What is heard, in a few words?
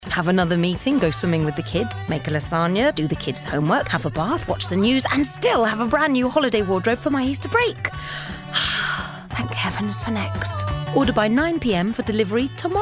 commercial